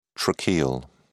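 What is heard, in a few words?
"tracheal", British English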